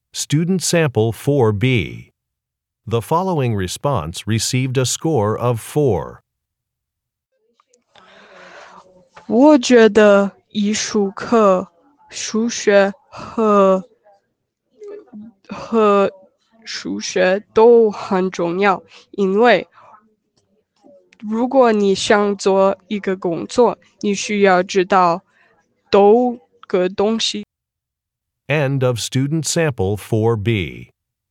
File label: chinese conversation